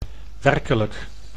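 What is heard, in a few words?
"werkelijk", Dutch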